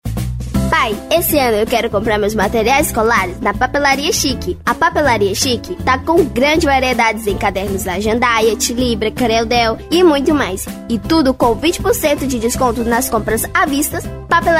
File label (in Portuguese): locutora infantil